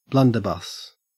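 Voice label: "blunderbuss", English, Australian accent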